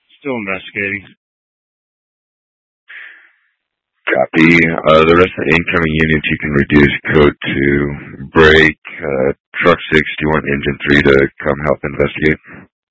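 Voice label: Incident Tac 1, Scanner audio recording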